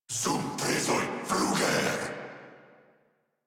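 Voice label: Cover Me, Male, English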